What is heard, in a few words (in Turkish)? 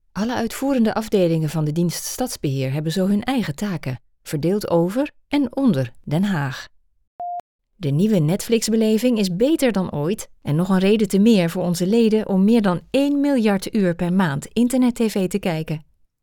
Hollanda Kadin Felemenkce